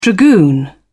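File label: "dragoon", British English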